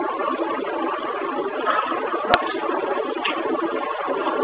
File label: voices, EVP; Homer Mill 2010; Lower Michigan Parnormal Society; Voices noise